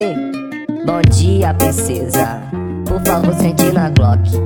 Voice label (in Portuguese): bom dia princesa high pitch